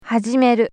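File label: "hajimeru", Japanese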